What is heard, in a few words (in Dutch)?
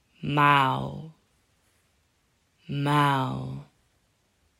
"maal" "maal", vergelijkende woorden